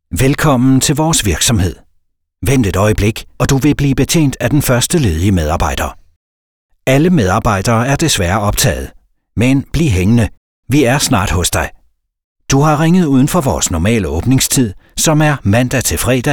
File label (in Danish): Formel mande, Speak; Speak demoer til indtalinger